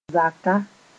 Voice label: "zaka", moore

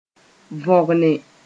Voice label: "võgne", moore

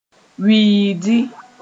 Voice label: "wiidi", Moore

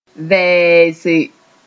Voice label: "vɛɛse", moore

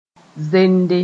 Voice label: "zende", moore

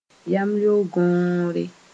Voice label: "yamleo-gõore", moore

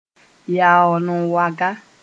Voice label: "yaool n waga", moore

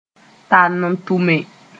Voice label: "tall n tʋme", moore

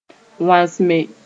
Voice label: "wãsme", moore

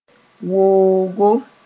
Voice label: "woogo", moore

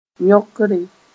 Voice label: "yõkre", moore

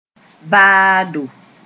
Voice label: "vaado", moore; crop residues

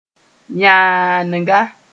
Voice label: "yãanga", moore; deep (voice)

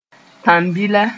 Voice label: "tãn-bila", moore

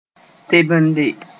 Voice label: "tebende", moore

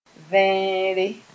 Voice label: "vẽere", moore

